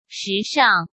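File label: "时尚", Mandarin Chinese; hsk; chinese-flashcards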